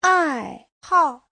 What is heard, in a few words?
"ai4hao4", Chinese; 爱好; (danh từ) sở thích; Giáo trình chuẩn HSK3